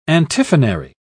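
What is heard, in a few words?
"antiphonary", British English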